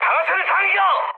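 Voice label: Korean attack position mood high, speech; War Thunder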